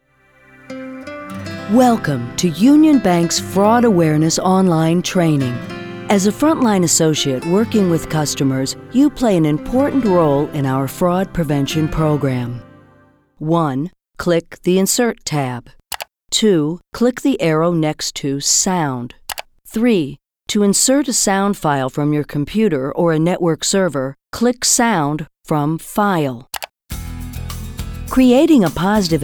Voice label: E Learning